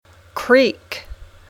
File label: "creek", English